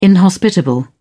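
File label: "inhospitable", British English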